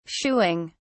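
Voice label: "shooing", British English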